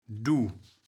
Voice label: "duw", kaevels platt

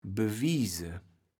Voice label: "bewiese", kaevels platt

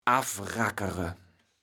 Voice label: "affrackere", kaevels platt

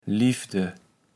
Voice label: "Liefde", kaevels platt

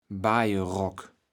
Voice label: "baje Rock", kaevels platt

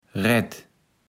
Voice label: "Red", kaevels platt

